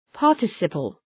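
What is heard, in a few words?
"participle", English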